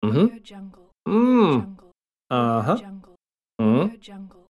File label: male understanding voice